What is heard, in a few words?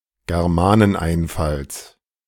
"Germaneneinfalls", German